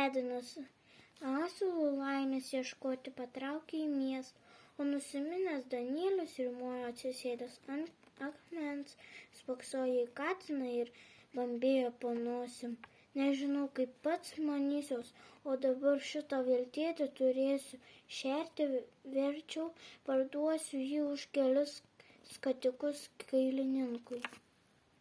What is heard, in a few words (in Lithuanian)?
pasaka Batuotas katinas